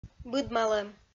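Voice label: "быдмалӧм", Komi-Zyrian, female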